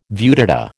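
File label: "viewdata", American English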